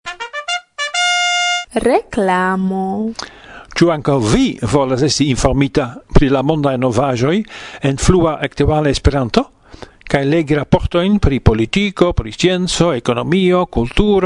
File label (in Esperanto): reklam; Reklamo: Interesiĝu pri Monato